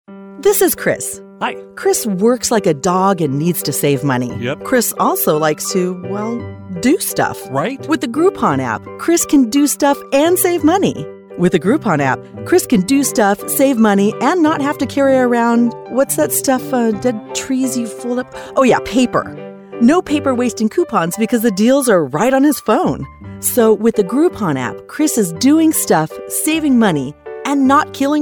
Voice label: female Explainer

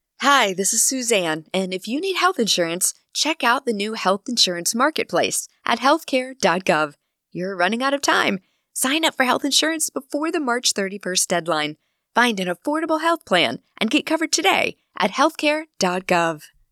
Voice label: Radio Spot Relatable Personable

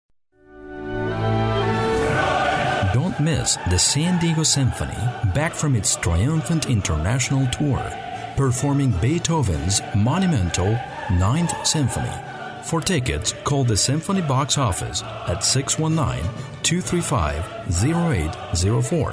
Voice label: English

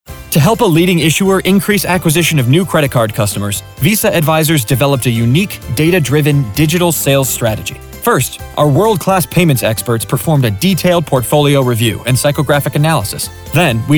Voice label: Corporate Narration

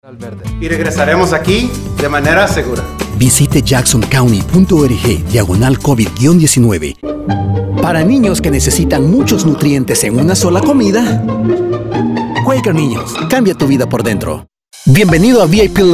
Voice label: SPANISH VO Latin American-Neutral

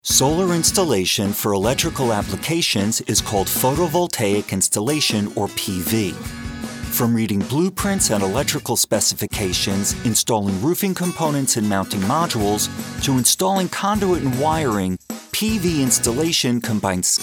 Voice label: eLearning

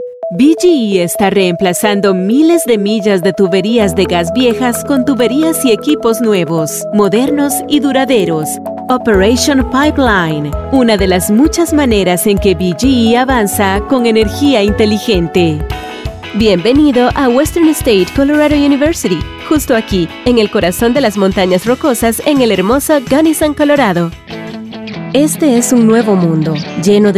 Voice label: Corporate

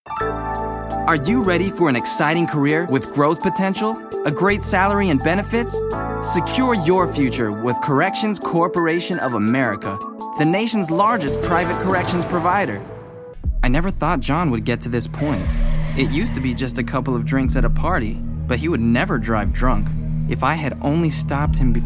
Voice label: Commercial Demo

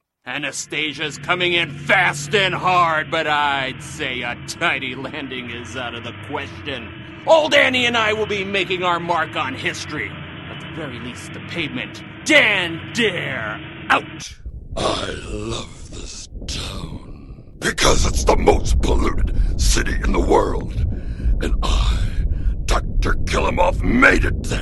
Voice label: Animation Demo

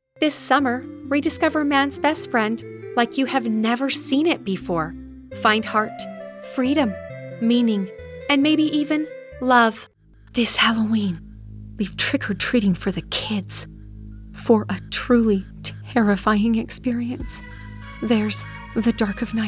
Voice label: Voice Over

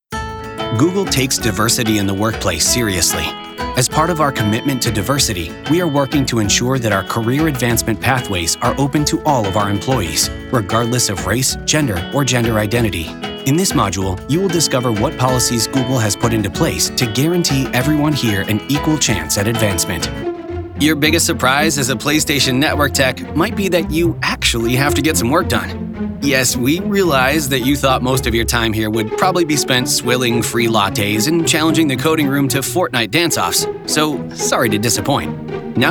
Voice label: eLEARNING

